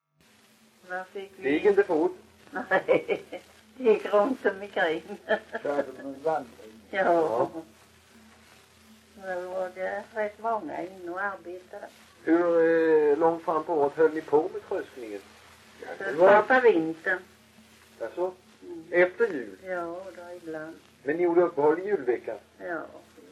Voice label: Kristianopel BLEK, dialekter; Folkminnen

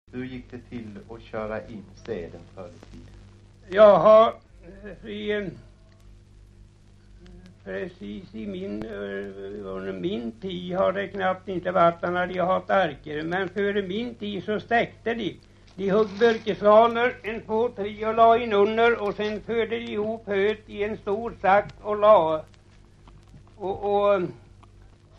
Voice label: Barkeryd, dialekter; SMAL

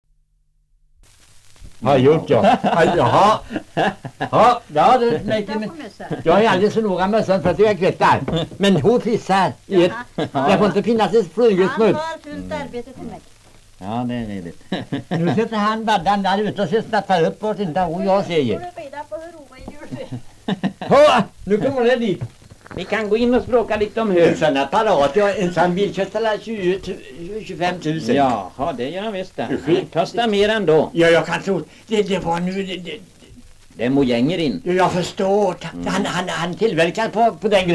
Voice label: dialekter; Folkminnen

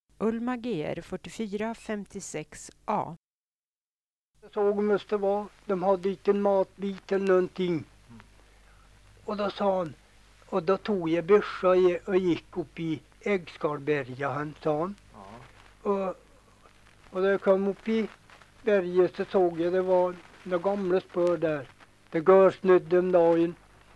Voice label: Hede, dialekter; Svenska sagor; Folkminnen

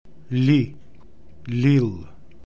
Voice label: Ljil Ljuma, Romska

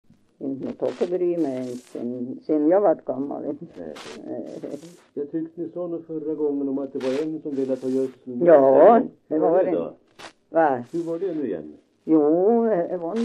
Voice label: dialekter; Folkminnen

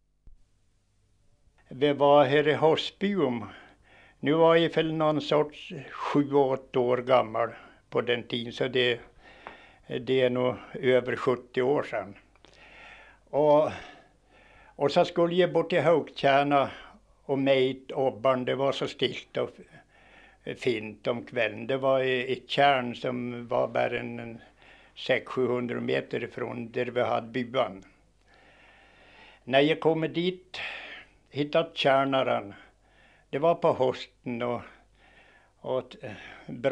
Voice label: Marieby, dialekter; Svenska sagor; Folkminnen

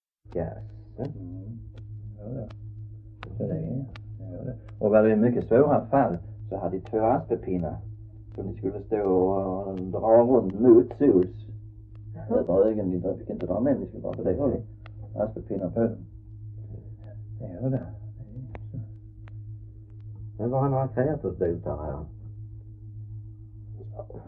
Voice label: Röddinge, dialekter; Folkminnen

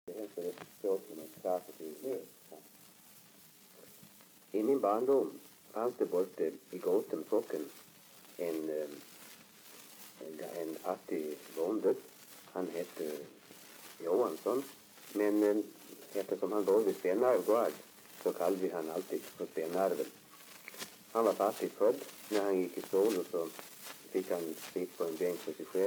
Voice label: dialekter; Folkminnen

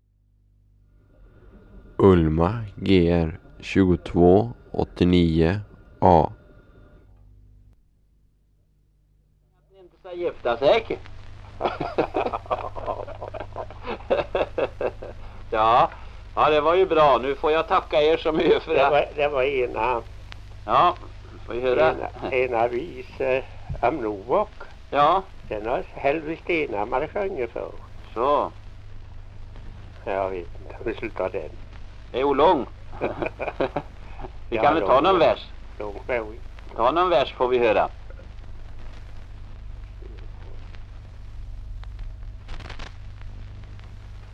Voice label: Amnehärad, dialekter; Svenska sagor; Folkminnen

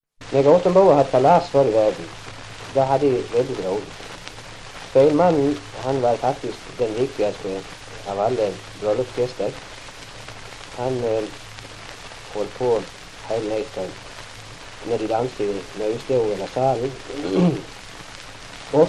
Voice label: Gothem, dialekter; GOTL; Folkminnen; Svenska sagor

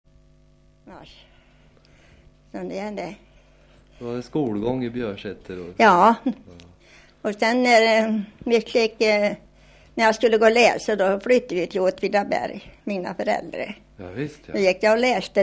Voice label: Björsäter, dialekter, sagor; Folkminnen

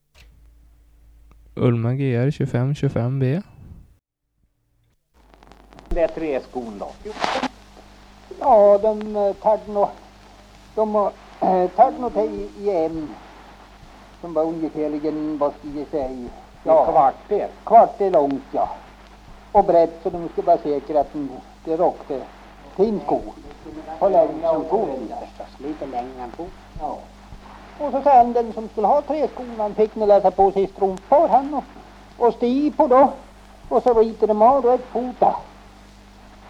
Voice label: Aspås, dialekter; Svenska sagor; Folkminnen

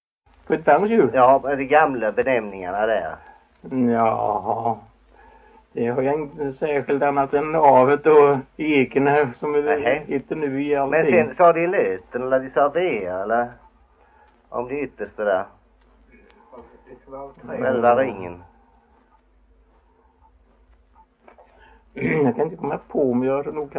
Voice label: Idala, dialekter; HALL

